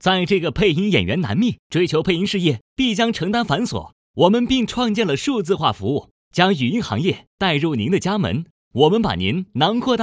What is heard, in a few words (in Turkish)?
Cince Erkek Mandarin Cin Simplified, pekin seslendirme